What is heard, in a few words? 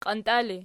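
"qiantia" ergative, Archi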